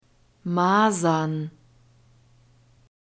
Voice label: "masern", german